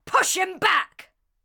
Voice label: Ranged Female Chokepoint Line, dialogue

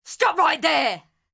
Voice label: Ranged Female Alert Line, Thug Spotted

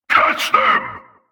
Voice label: Male Chokepoint Line, dialogue; NPC; Heist Cultist Chokepoint

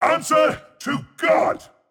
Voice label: Mid Fight Taunts; Voll; NPC; Voll Combat

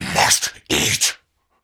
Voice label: Cannibal Male Taunt; Trunk Act One Monster

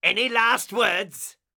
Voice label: Pirate Elite Male Alert Line

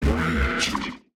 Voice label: Automaton Alert Line; NPC; Heist Robot Spotted

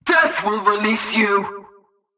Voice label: Female Mid Combat Line; NPC; Heist Cultist Mid Combat

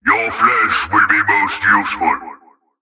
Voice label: Male Alert Line; NPC; Heist Cultist Spotted

